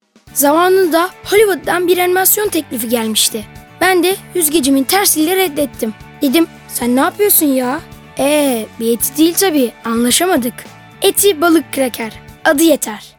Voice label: Reklam

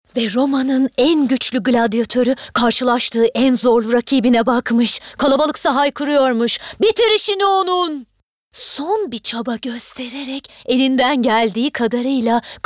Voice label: Sesli Kitap

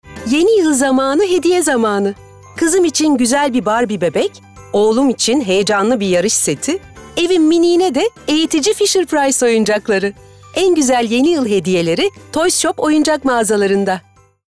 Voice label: Sicak Sakin

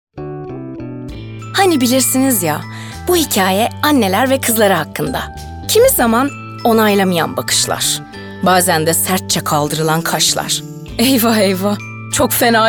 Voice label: REKLAM, tr

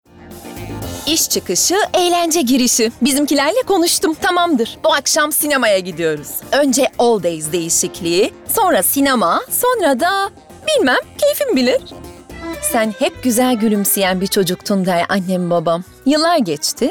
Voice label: Reklam

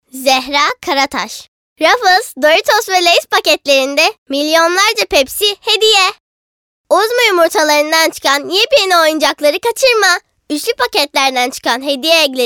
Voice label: Kiz Cocuk

